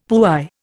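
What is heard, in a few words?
"puy", Indian English; Dictionary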